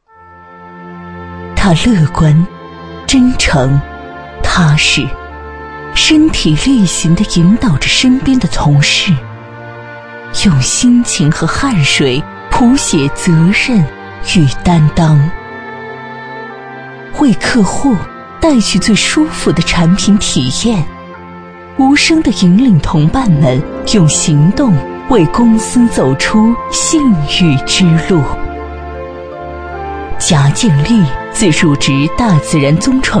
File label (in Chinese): 女 人物介绍; 感动人物郏建立